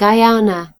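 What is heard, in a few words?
"guyana", British English; gcompris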